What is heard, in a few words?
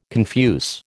"confuse", American